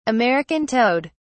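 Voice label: "american toad", English, British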